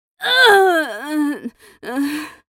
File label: dying, en US; English; Character Voices